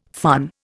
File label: "fun", Indian